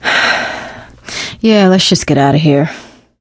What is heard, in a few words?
wedding witch dead, survivor voice; Left 4 Dead 2; Source Engine; video games; producer